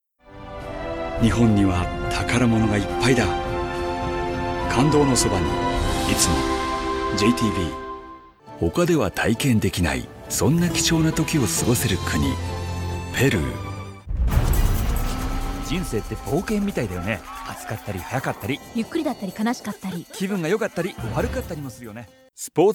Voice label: Japanese M Showreel